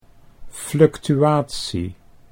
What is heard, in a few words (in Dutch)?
"fluctuatie", Dutch